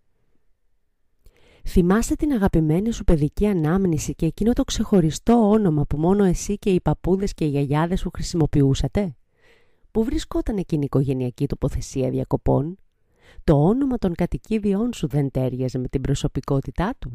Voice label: Greek